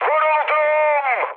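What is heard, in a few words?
Turkish aircraft destroyed, mood high, speech; War Thunder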